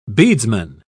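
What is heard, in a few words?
"beadsman", English, British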